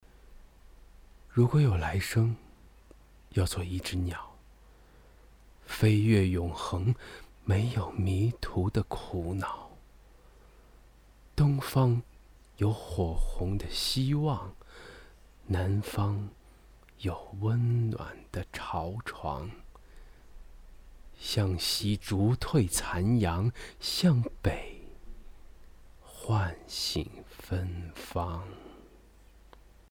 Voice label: 情感类

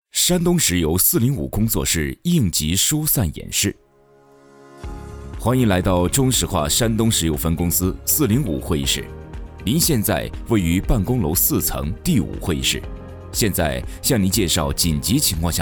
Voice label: 消防宣传主题试音

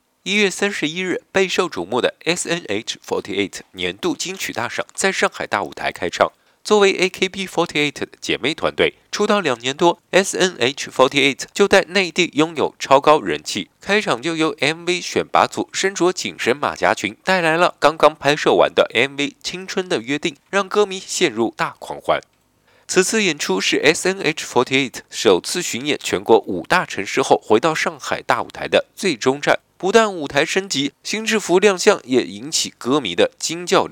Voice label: 娱乐 播报

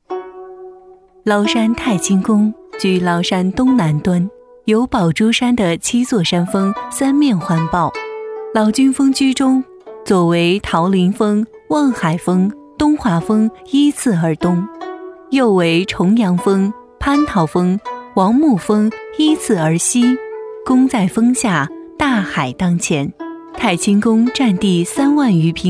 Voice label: 景区解说

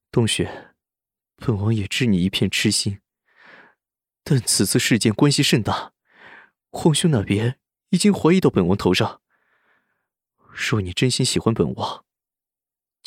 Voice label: 公子音

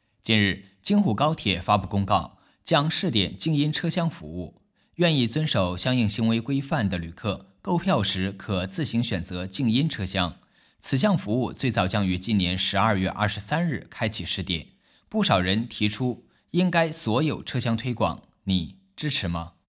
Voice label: 新闻

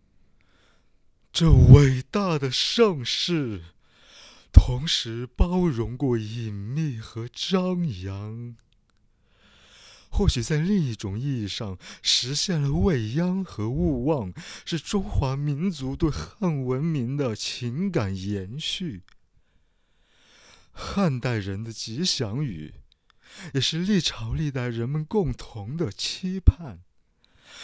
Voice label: 中文纪录片

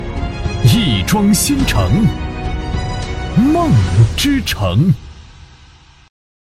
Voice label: 品质广告电台标语; 梦之城

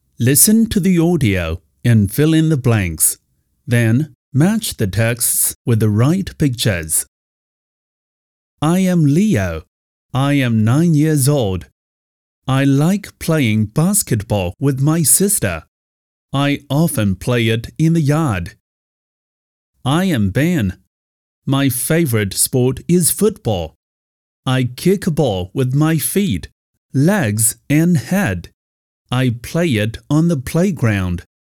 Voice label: 英文 课文 英式

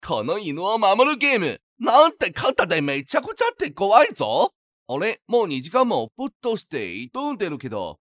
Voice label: 日语信息流; この犬を守るゲーム

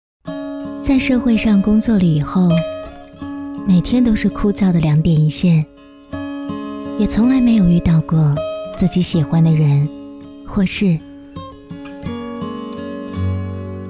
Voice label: 温柔 女声 广告 试音

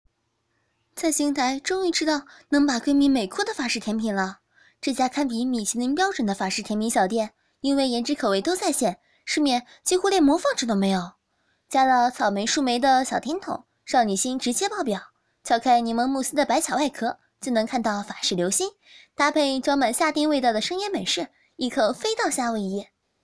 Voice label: 美食短视频女播

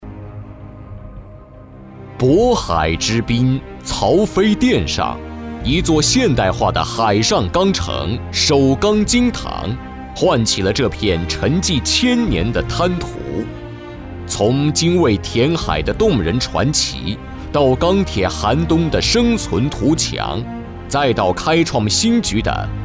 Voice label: 专题 党政 正式 起伏 沉稳; 京唐

